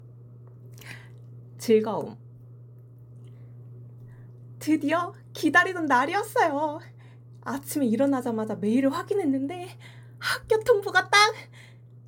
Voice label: Young Female Happy, korean voice emotion